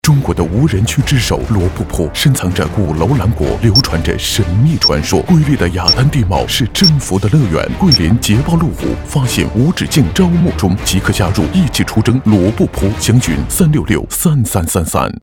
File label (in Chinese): 男 广告 旅游广告